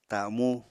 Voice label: "tamú", Apatani

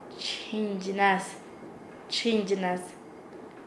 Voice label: "ser lloron", Nasa Yuwe; paez

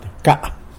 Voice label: "bca", tlacolula; crow

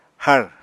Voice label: "hár", Apatani

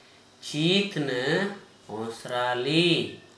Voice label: Gta Birds List flamingo, Dialect: Hill; Phoenicopterus roseus, flamingo

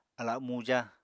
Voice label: "ala muja", Apatani

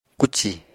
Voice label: "kúcì", Apatani

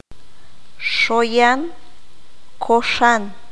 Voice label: "torcido", Q'anjob'al

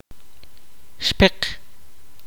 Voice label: "frog", kaqchikel

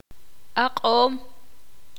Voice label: ixil; dar

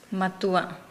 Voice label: "mature", Nukuoro

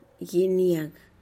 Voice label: "giniag" small chile

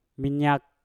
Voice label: "minyak", Mpur; muntah

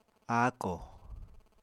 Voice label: "áakò", Apatani; Material Culture

